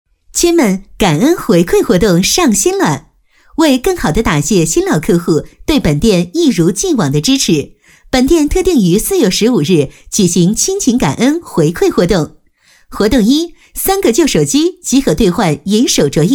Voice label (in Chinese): 女 广告; OPPO专卖店